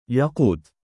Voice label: "yaaquut", Arabic, male; Yaqut、Yaqout、Yaaquut、Yaaqut、Yaquut、Yaaqout; يَاقُوت; ヤークート; コランダム、鋼玉（このうち"緑ヤークート"がグリーンコランダム）；ルビー（赤ヤークート）；トパーズ、黄玉（黄ヤークート）；サファイヤ（青ヤークート）；柘榴石（炭火色ヤークート）; given name